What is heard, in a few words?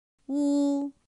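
"ū", chino mandarin; pinyin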